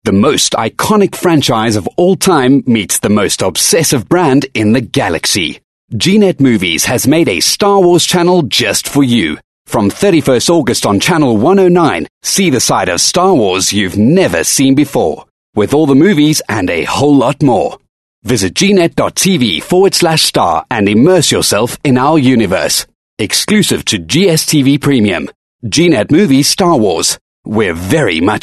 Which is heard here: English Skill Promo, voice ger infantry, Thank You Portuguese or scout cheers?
English Skill Promo